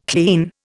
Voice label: "clean", Indian